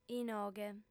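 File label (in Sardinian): "inoghe", female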